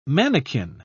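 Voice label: "manakin", British English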